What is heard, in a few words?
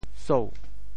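"sou2", Teochew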